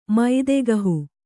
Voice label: "ಮೈದೆಗಹು", kannada